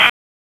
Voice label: Police Northwest District Tac 1; Raleigh; wake

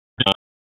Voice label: Police Northwest District Tac 1

